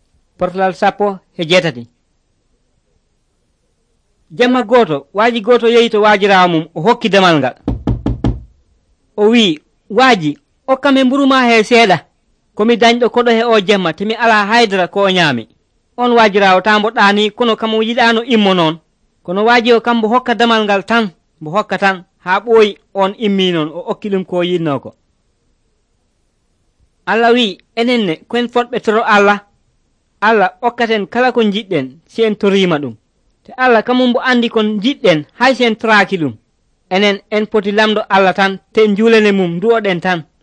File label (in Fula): pulaar fulacunda, drama